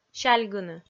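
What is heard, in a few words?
"сяльгыны" Komi, female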